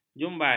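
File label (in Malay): "jumbai", Malay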